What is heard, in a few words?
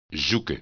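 "zoeken", Dutch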